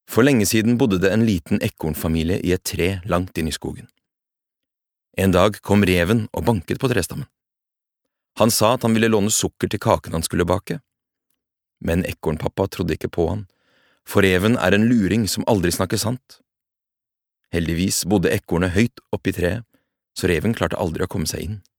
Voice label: no childrens book